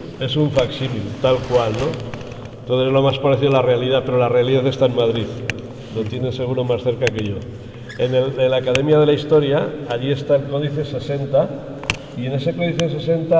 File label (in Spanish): castellano; yuso